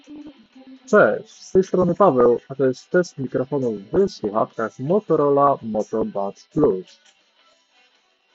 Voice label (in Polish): moto buds plus glosno